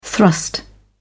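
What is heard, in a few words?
"thrust", British English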